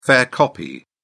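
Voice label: "fair copy", British English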